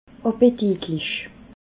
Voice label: "appetitlich", alsace

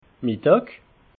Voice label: "middag", alsace

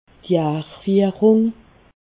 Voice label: "jarfiehrung", alsace

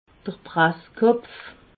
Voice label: "presskopf", alsace

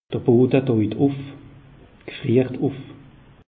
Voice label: "bodde", alsace; meteo

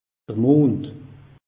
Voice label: "mond", alsace; meteo

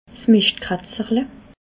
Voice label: "mischtkratzerle", Alsace

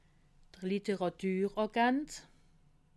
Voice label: "literatuagent", alsace

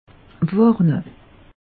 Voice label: "warne", alsace; meteo

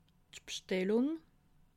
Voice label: "bstellung", alsace

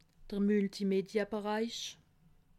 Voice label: "multimedia", alsace

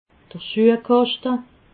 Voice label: "schuehkaschte", alsace

